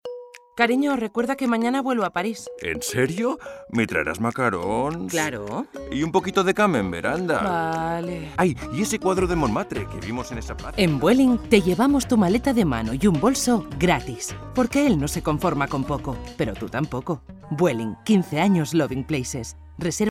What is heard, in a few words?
male spanish comercial; ideasonora